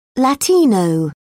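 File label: "latino", British English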